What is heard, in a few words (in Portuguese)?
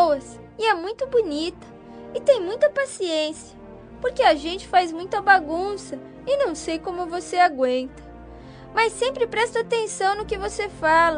infantil masc professora, Voz Infantil, Voz Masculina; Aniversário Voz Infantil – Professora – Voz Masculina; Telemensagens